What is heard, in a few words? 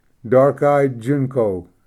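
"dark eyed junco", English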